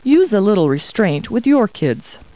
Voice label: people, kid

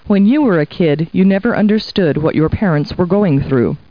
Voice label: people, kid

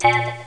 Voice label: "ten", speech; robotron

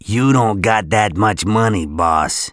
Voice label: Not Enough Money, Male, English; gangsters

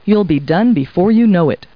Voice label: people, kid

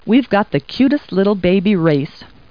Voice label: people, kid

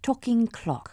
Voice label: tclock, british; Aristosoft, Inc.; icons

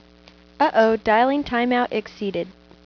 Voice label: Dialing Timeout Exceeded Female

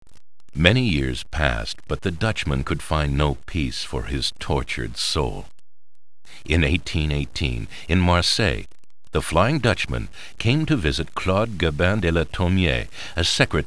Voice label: GIRL

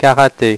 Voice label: karate, French Pronunciation; HyperSound™2

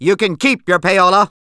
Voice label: No payola, Male; gangsters

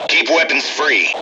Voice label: roe suppress, M Voice English

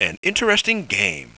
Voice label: non-commital - interesting game; Spades Deluxe 1.6

